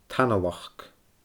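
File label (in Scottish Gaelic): "tanalachd", Scottish Gaelic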